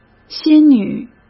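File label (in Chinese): "仙女", Mandarin Chinese; hsk; chinese-flashcards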